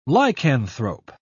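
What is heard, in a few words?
"lycanthrope", British English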